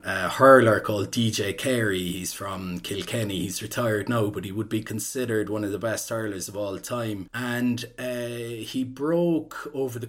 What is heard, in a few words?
CORK MALE aged 37